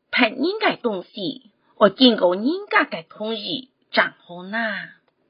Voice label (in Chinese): (海陸)客語生活常規